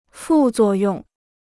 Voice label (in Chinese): "副作用", Chinese